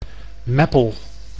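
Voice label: "Meppel", Dutch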